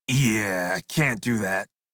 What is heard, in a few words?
Crew Reject Male en; Dialog; Warframe; Railjack Crew; Red Veil